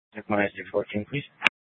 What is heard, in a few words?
Sheriff, scanner; Shrf Mnt (Parks)